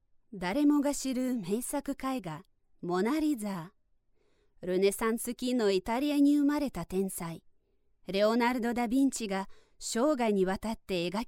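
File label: Narration Russian English Ukrainian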